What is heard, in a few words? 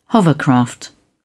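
"hovercraft", British English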